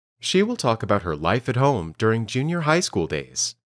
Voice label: Dictation